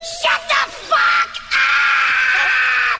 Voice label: shut the fuck up female; expletives